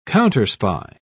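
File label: "counterspy", British English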